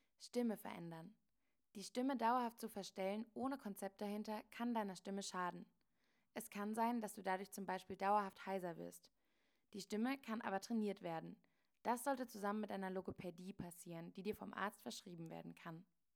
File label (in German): Stimme veraendern